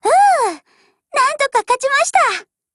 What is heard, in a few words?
WIN JP